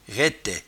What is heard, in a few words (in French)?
"raitet", patois jura